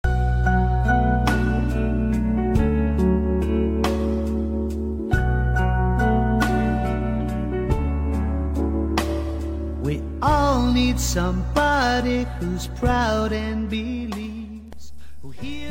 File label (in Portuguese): dia dos namorados reconciliação, voz feminina